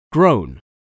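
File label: "grown", English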